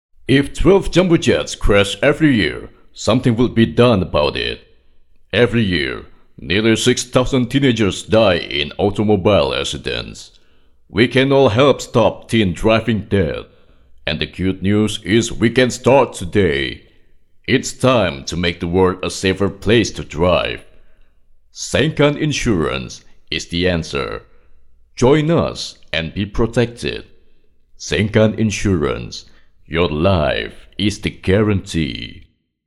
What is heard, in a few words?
Formal English